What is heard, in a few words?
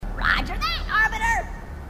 grunt; h2dialogue; grunt crazy